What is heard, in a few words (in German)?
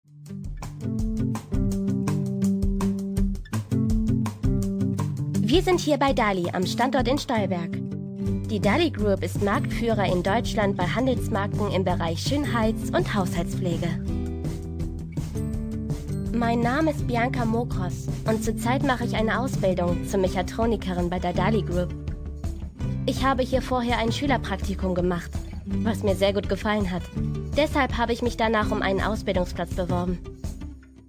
imagefilm azubifilm; dalli group